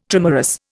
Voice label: "trimerous", Indian